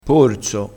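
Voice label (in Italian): "porso", dialettale, crespinese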